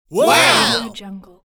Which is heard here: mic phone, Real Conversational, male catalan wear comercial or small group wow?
small group wow